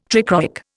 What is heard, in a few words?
"trichroic", Indian